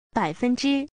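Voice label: "bai3fen1zhi1", Chinese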